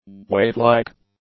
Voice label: "wavelike", English; adjectives